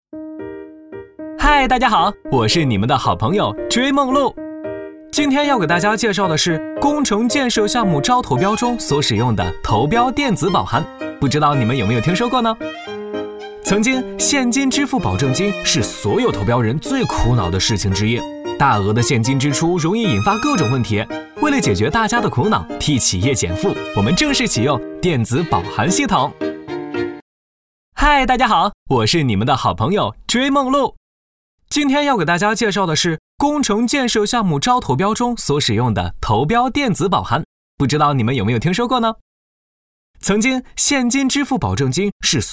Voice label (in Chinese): 男 MG 动画; 电子保函